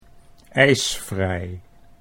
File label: "ijsvrij", Dutch